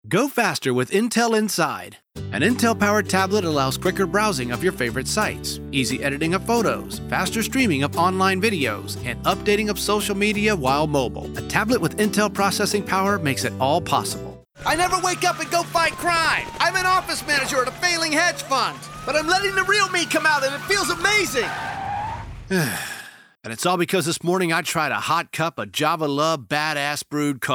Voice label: Commercial